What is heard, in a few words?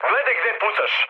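Serbian player friendly fire mood med; War Thunder; speech srb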